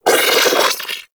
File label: Vocal Pain; Warframe; Sentients; Battalyst